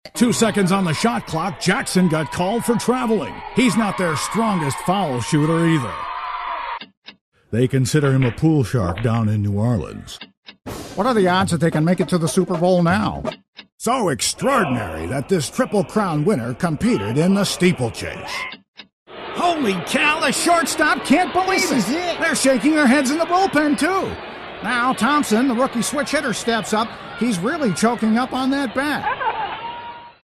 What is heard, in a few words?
Sportscasters on TV; voiceovers